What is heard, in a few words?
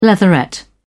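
"leatherette", English, British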